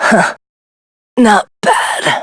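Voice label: Vox Dead, English; Lucikiel; kings raid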